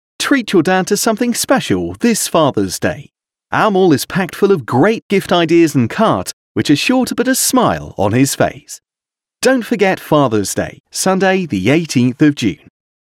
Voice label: UK Fathers Day Male